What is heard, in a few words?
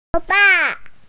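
"fa4", Mandarin Chinese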